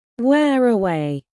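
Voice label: "wear away", British English; Time, history; Thời gian, lịch sử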